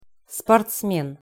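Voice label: "sportsmen", Russian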